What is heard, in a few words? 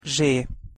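"zs", Hungarian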